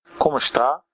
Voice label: how are you, portuguese br